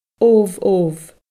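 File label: "obh obh", Scottish Gaelic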